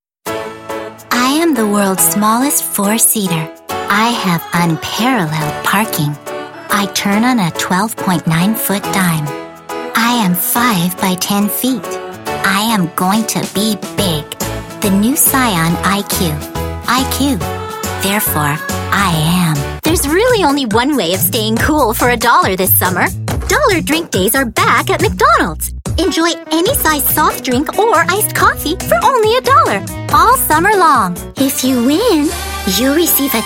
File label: New Commercial